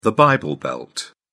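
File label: "bible belt", British English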